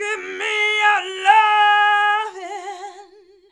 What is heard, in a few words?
"GIMME YA", FEMALES